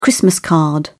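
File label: "christmas card", British English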